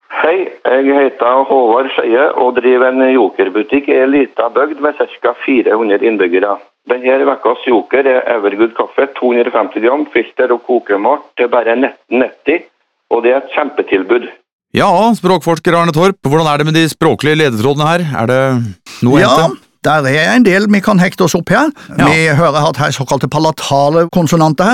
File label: radiospots